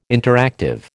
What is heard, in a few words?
"interactive", American